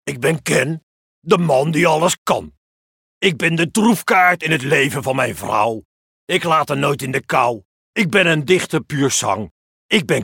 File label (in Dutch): Typetjes animatie en nasynchronisatieworkshop